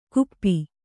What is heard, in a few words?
"ಕುಪ್ಪಿ", kannada